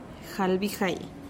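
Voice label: "jalbijai", Teotitlán del Valle Zapotec; twenty-five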